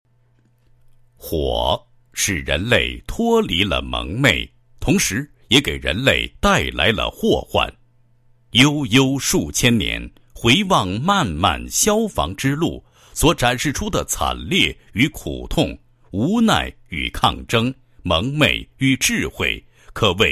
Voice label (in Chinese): 男 专题 宣传片; 防维保监督系统宣传片